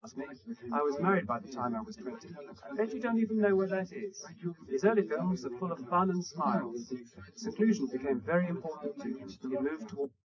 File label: male; SiSEC11; underdetermined